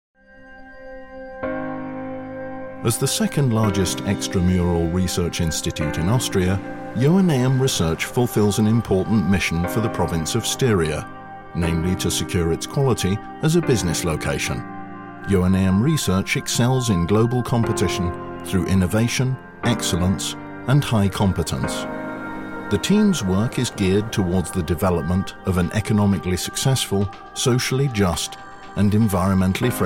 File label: Neutral